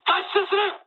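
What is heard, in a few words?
bailout mood high, speech japanese; War Thunder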